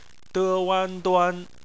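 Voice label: "duan" bopomofo, Chinese; phonetics; bpmf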